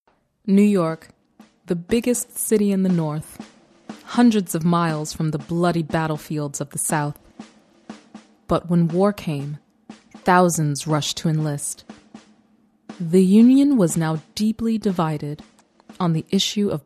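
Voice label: US Narration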